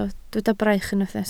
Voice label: "braich", Welsh

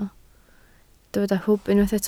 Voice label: "hwb", Welsh

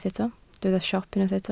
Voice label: "siop", Welsh

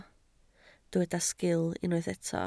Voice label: "sgil", Welsh

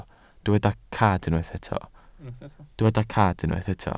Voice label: "cad", welsh

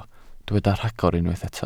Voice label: "rhagor", Welsh

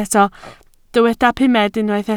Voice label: "pumed", Welsh

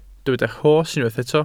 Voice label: "rhos", welsh

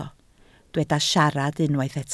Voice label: "siarad", Welsh

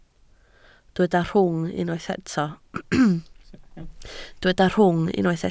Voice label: "rhwng", Welsh

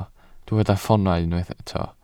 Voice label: "ffoonau", Welsh

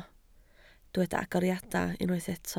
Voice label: "agoriadau", Welsh

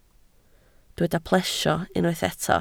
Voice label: "plesio", Welsh

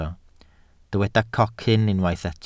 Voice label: "cocyn", welsh

